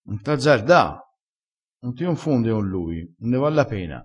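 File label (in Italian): "fa confonde", vocabolario dialettale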